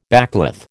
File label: "backcloth", American